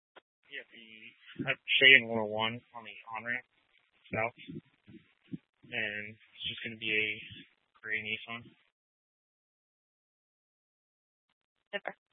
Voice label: Scottsdale Police, Simulcast

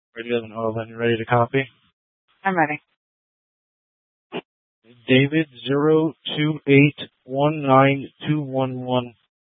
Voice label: Sheriffs Office, scanner

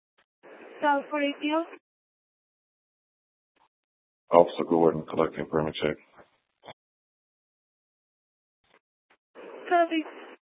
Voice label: Court Security Dispatch, scanner

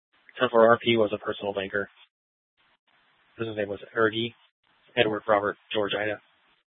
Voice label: Dispatch; Scottsdale

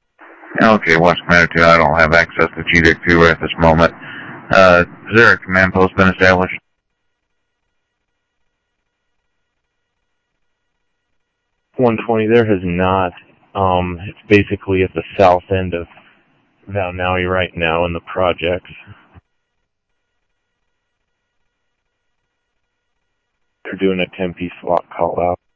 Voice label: Sheriff, scanner audio

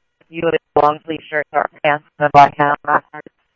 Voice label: Simulcast Police Dep, scanner audio trunk; Mesa Simulcast; MESA PD Superstn; MesaPoliceDep

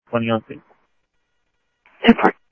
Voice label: Sheriff scanner audio

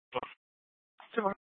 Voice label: PD Dispatch

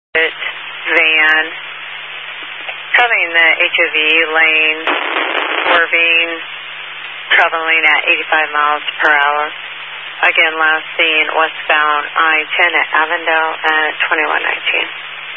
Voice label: Highway Patrol, Phoenix Metro West Area, scanner

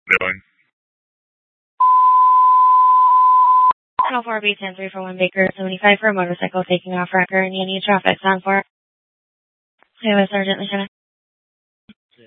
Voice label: Dispatch, Mesa PD

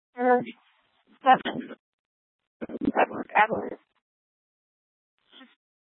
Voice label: Tempe PD, scanner; Rgnl Wireless Co-op (RWC)

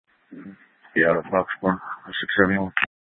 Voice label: Sheriff, scanner P25

